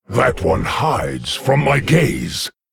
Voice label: Male Lich ERROR STEALTH

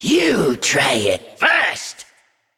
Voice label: Parched Desperado Naga Emote Attack

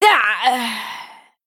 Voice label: Female Night Elf Death

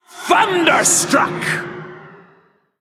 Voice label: Female Elemental Attack

